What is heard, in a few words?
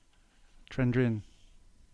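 "Trendrine", English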